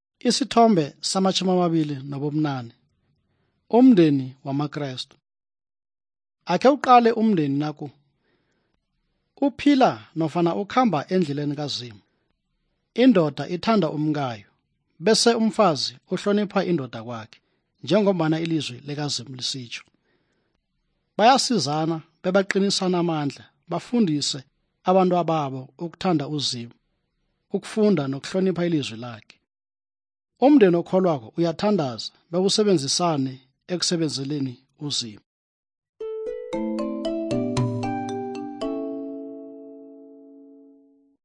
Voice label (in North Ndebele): good news ndebele southern, drama